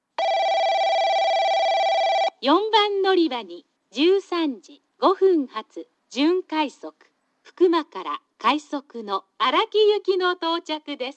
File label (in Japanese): 陣原駅 接近放送（準快速・福間から快速 荒木行き）; 赤間駅